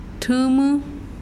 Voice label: "lazo", mazahua

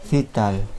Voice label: Nawat palabras estrella; pipil

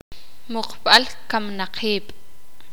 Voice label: "Cementerio camposanto", Sakapulteko

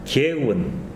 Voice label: "lengua", tsesungun

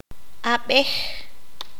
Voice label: "escuchar", Q'anjob'al

